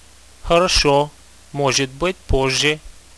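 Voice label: later, radio, male; silo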